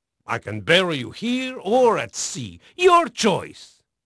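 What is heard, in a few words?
Portuguese male, voice; Pirates Of The Caribbean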